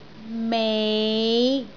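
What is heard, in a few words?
"mei5", Cantonese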